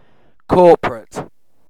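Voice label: "corporate", British English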